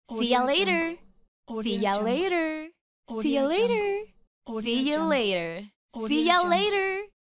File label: teenage girl says see ya later pack